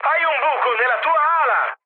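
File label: Italian right wing mood high, speech; War Thunder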